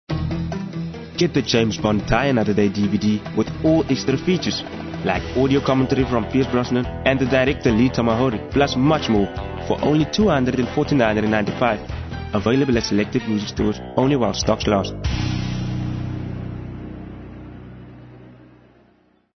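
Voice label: English Hard Sell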